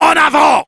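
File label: battle cry, French; En avant !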